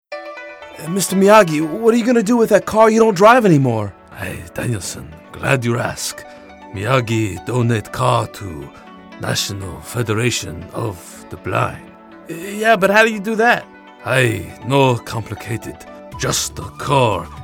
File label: car donation funny; vehicle donation; nfb